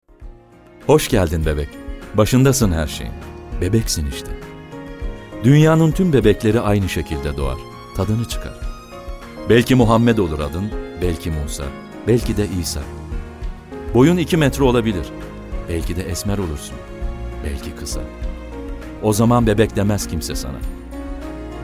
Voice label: turkish m narration, Turkish voice